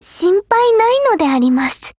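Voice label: low mood, Japanese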